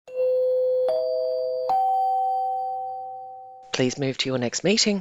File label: three chimes ascending please move to your next meeting